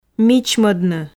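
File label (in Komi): "мичмодны", Komi, female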